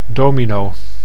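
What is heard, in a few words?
"domino", Dutch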